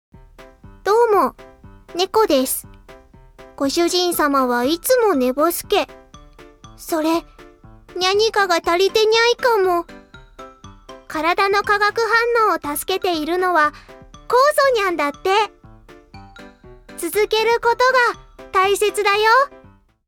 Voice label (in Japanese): キャラクターナレーション